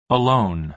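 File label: "alone", American English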